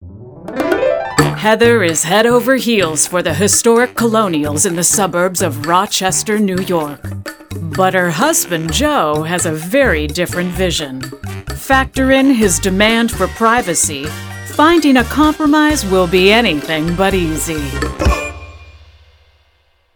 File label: Promo